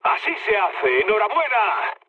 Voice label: player killed enemy, mood med, speech

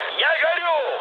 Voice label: on fire, mood high, speech ru; War Thunder

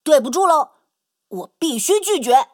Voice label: Speech Quick Commands No Way

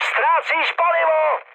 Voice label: tank damaged, speech cze, mood high; War Thunder

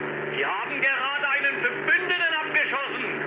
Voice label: player killed ally, mood med, speech ge

